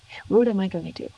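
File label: voice acting, es, female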